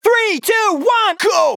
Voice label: Predrop Dry - Countdown - 150 BPM, Vocals; Cymatics; Euphoria Vocal Sample Pack